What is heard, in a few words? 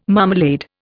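"marmalade", Indian English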